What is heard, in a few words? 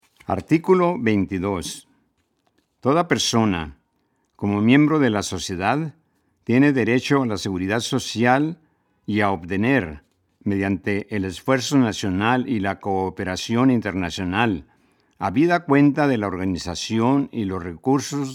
Spanish